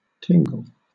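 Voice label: "tingle", British English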